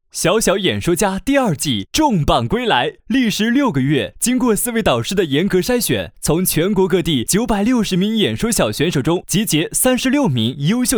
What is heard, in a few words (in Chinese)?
男 栏目先导片; 中国教育电视台; 小小演说家第2季